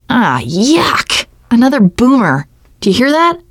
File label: heard boomer, teen girl